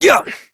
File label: Horse Start Adult, Dialog; Duviri